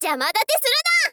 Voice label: princess start, voice